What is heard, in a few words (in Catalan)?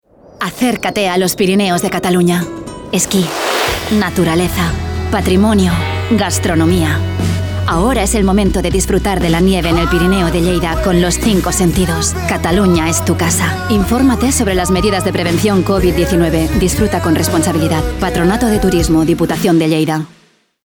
falca radio, ESP; TURISME; LLEIDA